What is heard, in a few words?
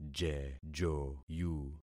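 "jejoyu", concatenated syllables; Affixing experiment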